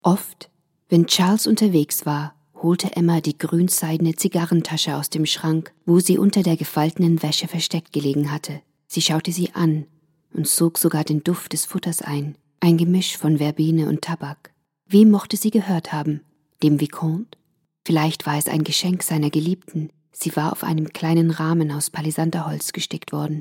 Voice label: Novel Audiobook German

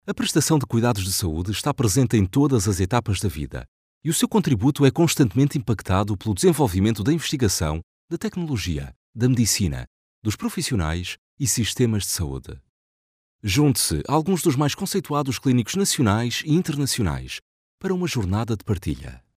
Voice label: raw sample pt

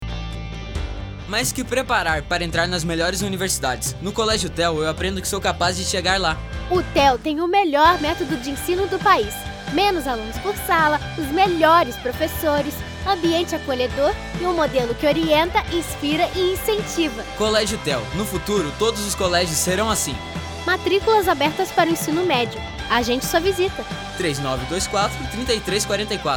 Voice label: SPOT; Colégio TÉO

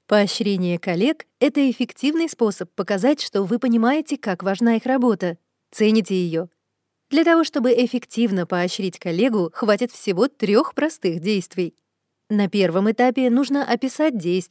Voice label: Demo RUS

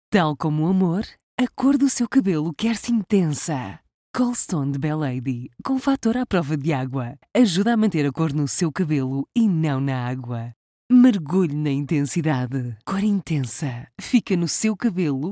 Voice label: VO TV Spot; Bellady; Koleston

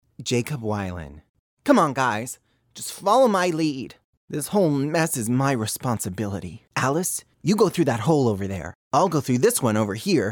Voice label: Character

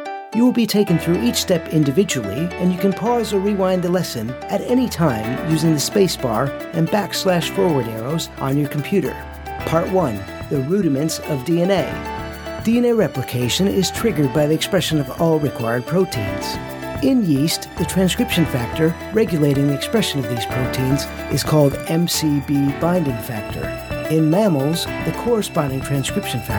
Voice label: E Learning

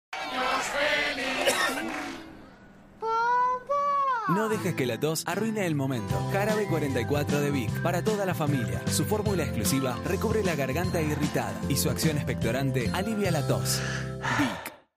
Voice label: Spot; Jarabe 44 Vick

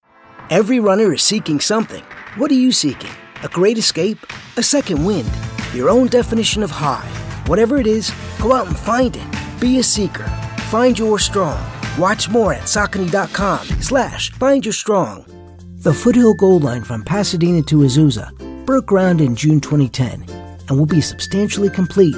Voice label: Commercial Compilation

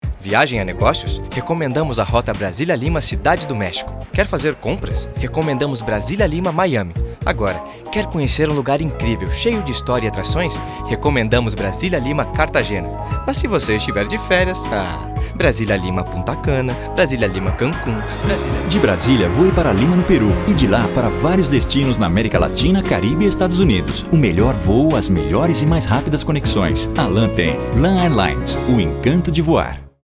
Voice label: Spot; LAN BSB LIMA

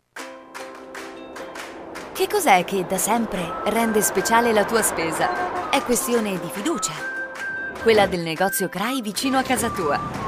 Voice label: EDUCATIONAL

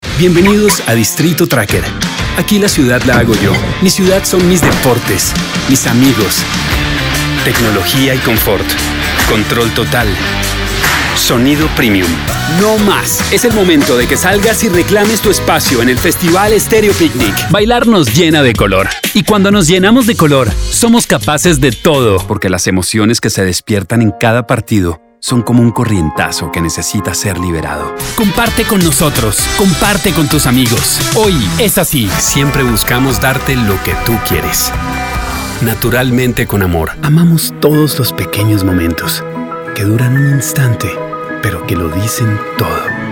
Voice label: Comercial

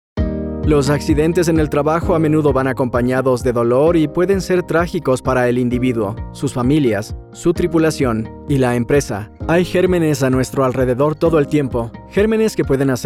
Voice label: eLearning Spanish

